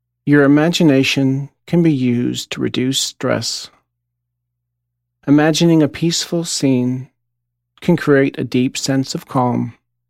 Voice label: Imagery Forest, relaxation zone; Forest Scene Audio